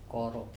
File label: shell, kOro